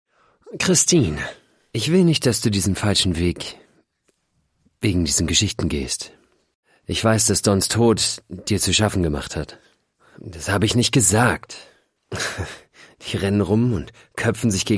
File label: Drama, Voice Over Drama, German